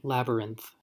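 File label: "labyrinth", American English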